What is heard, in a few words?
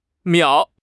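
"miao3", Chinese; HSK4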